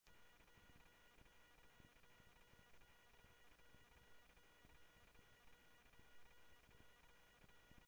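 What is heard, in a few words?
Sheriff Lake Patrol, scanner audio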